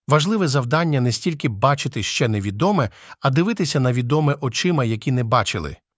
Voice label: uk ukrainian, spoken language

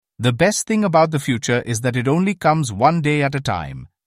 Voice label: voice cheery indian

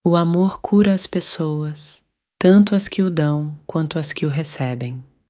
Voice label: pt portuguese, spoken language

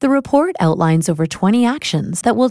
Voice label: female fast; XTTS-V2

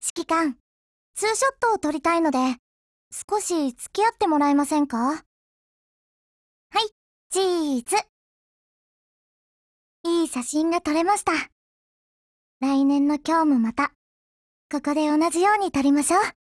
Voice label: DIALOGUE WEDDING JP; girlsfrontline

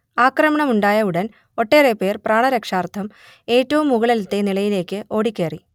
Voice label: Malayalam; ai4bharat indicwave2vec models

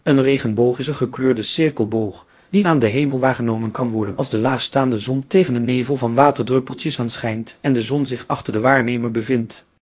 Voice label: speaker, nl NL

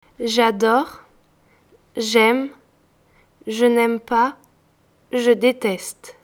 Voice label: french audio

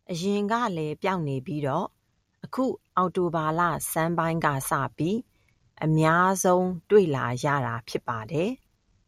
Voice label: burmese

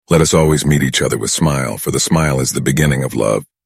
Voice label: voice authoritative and deep

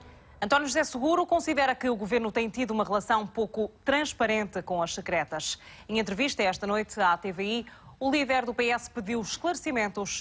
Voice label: portuguese

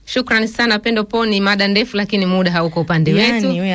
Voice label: example swahili; dvoice

